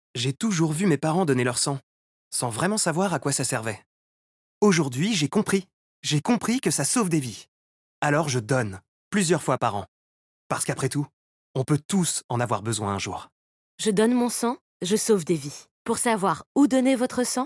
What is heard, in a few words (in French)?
spot radio